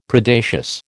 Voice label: "Predacious", American

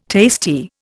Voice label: "tasty", Indian

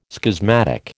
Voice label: "schismatic", American

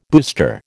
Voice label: "booster", American

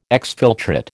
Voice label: "exfiltrate", American

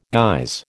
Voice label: "guise", American

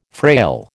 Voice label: "frail", American

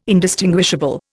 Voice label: "indistinguishable", Indian

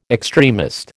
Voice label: "extremist", American

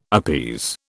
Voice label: "appease", British

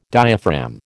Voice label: "diaphragm", American

